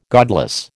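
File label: "godless", American English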